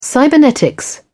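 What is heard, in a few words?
"cybernetics", British English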